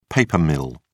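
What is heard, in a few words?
"paper mill", British English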